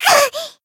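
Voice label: damaged, Japanese; nekoko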